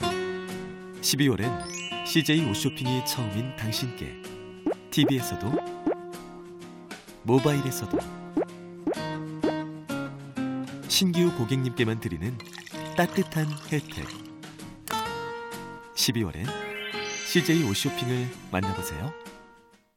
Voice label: 광고; CJ O SHOPPING

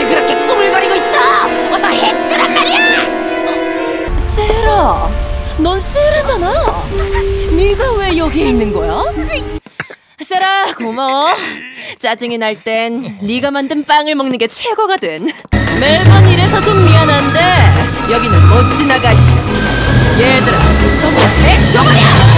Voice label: 20대 매력적인 다혈질 해적

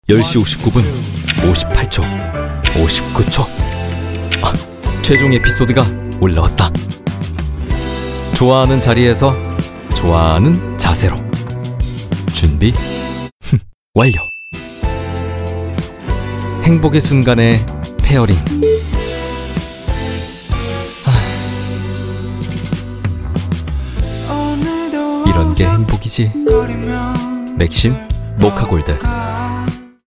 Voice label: 광고 샘플 내츄럴 편안한; 맥심 모카골드

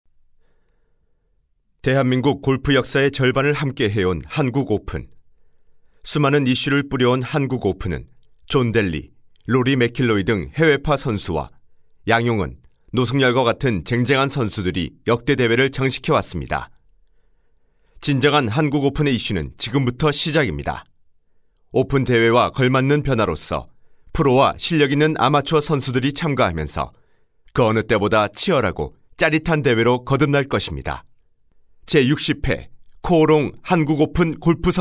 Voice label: 내레이션 진중한

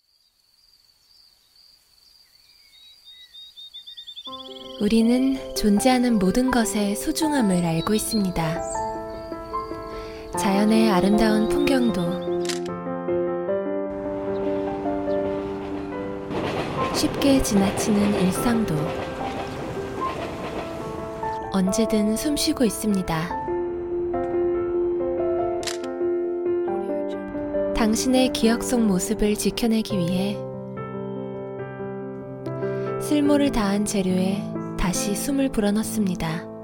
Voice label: 내레이션 차분 감성

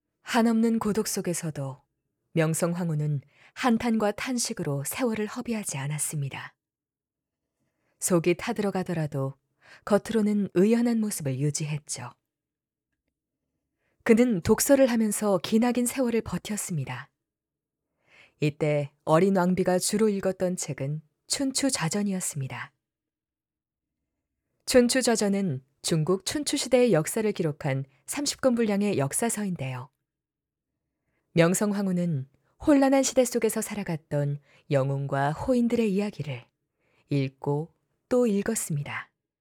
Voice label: 오디오북 해설 나직하고 신뢰감 있는 30대

